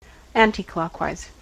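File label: "anticlockwise", US English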